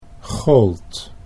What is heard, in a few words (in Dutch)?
"gold", Dutch